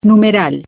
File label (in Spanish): Key Pound ES, Keytones; Just5 J509